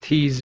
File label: "tiz", Persian